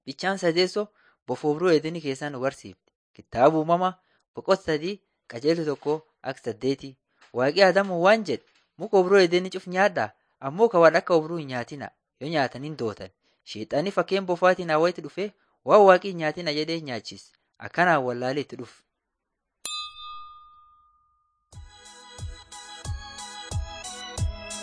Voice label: borana sakuye

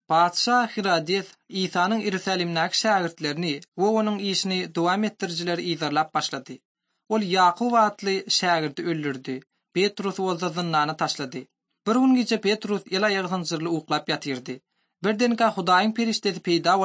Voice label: turkmen, bible translation drama

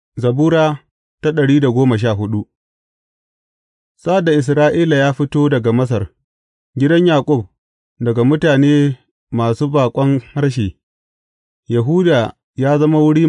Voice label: hausa bible drama, psalms; hausa bsn nt drama

